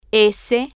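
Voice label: "s", spanish, female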